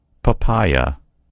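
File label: "papaya", American English; gcompris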